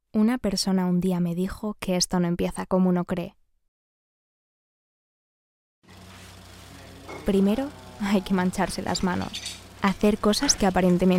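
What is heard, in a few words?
comercial, Spanish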